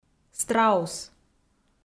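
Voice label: "страус", Komi-Zyrian, female; audio dict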